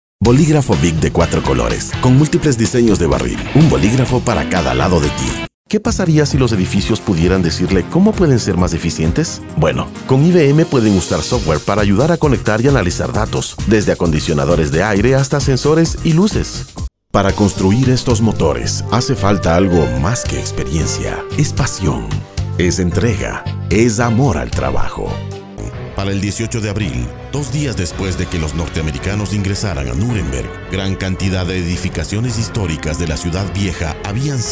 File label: spanish latin american commercial; Spanisch (Lateinamerika); Ecuador; lateinamerikanischer Sprecher